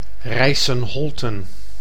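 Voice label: "Rijssen-Holten", Dutch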